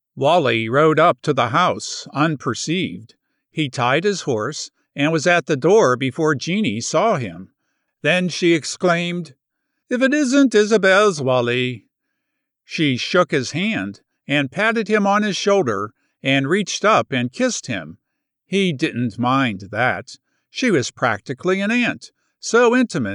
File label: Audiobooks Fict 3rd person boy meets girl